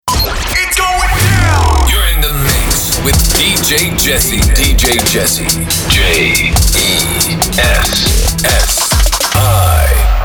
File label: Radio Imaging DJ Drop